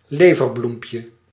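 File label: "leverbloempje", Dutch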